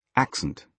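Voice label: "accent", British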